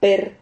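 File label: "per", Spanish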